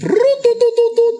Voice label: brrr doot doot doot doot, gibbrish; Voice

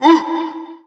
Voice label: "ooh", Voice

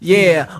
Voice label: "yeah", group of men

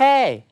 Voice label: "hey" male objecting; ooh ahh

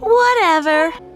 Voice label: "whatever" girl; Voice; expletives

